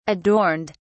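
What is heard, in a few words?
"adorned", British English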